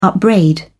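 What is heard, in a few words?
"upbraid", British English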